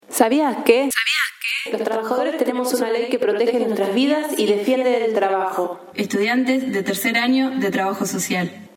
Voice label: spot; derechos del trabajador